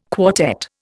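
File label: "quartet", Indian English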